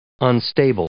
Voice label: "unstable", English

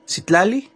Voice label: "sitlali", Náhuatl; estrella; star

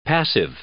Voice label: "passive", English

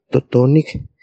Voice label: "totonik", Náhuatl; caliente; hot

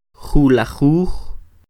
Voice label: "Julajuj", Quiché; once; eleven; INALI; UTexas; Números

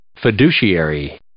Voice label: "fiduciary", English

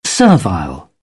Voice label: "servile", British; aɪ - ə; English

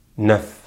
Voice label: "neuf", French; Consonantes en francés; easypronunciation

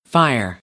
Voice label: "fire", American; AmericanBritish

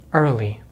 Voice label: "early", American English; easypronunciation

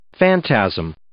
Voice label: "phantasm", English

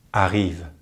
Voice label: "arrive", French; Consonantes en francés; easypronunciation

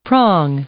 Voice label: "prong", English; noun; Ingles

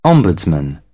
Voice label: "ombudsman", British; AmericanBritish

